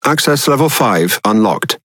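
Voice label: Speech Access Level, British English; World Of Warships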